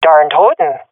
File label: sees enemy killed, swat fem; Source Model Packs; Counter Strike Model Pack; CS2